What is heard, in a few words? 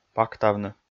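"пактавны", Komi, male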